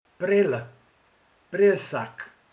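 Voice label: "bömböl", Hungarian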